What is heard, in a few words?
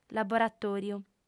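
"laboratòriu", female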